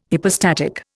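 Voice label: "hypostatic", Indian English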